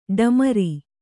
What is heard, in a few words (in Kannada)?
"ಢಮಾರ್", Kannada